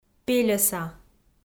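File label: "пельӧса", Komi, female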